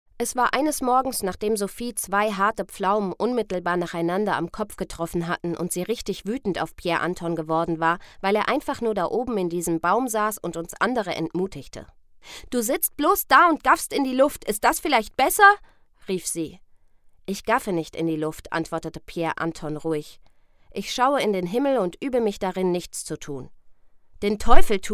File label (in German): Hoerbuch Kinder Jugend